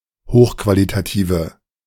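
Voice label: "hochqualitative", German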